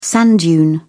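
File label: "sand dune", British English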